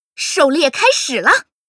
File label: Dryad yes attack, zh